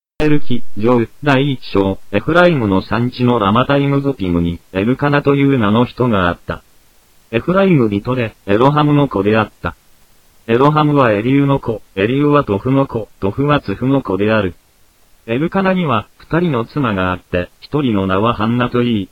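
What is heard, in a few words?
japanese, bible reading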